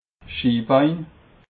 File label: "schinbei", alsace